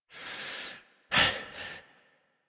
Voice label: Adult, en, Dialog; Dax Battle; Warframe; Duviri Paradox Quest

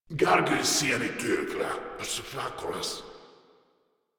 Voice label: Patrol, Grineer Male; Warframe

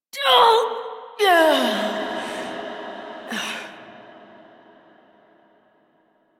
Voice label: Adult, en, Dialog; Execute; Warframe; Duviri Paradox Quest

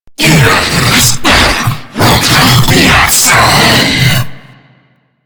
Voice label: Boss Speak Combat Taunt; Warframe; Taunts; Lech Kril

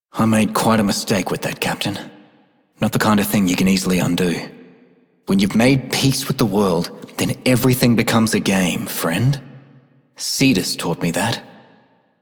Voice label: Male Scum, Male Two, en; Dialog; Warframe; Solaris Venus

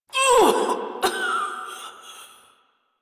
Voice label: Death, Female; Railjack Crew; Steel Meridian

